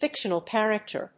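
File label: "fictional character", English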